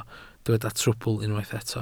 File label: "trwbl", Welsh